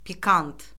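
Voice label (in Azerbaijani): "pikant", Azerbaijani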